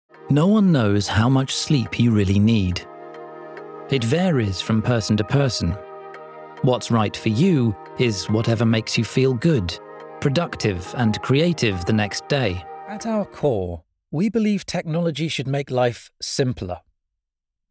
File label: Corporate Narration